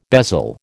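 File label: "bezel", American English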